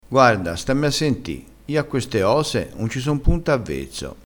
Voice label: "avvezzo", crespinese

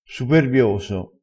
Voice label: "superbioso", crespinese

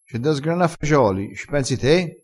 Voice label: "sgrana", vocabolario dialettale

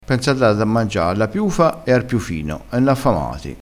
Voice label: "ar", crespinese

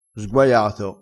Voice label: "sguaiato", crespinese

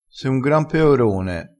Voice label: "peorone", crespinese

